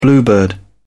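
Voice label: "bluebird", British English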